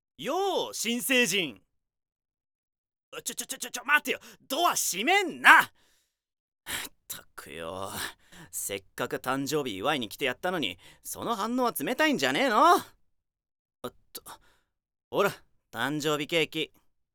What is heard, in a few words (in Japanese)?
青年